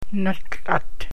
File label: Cranberry, Gwich'in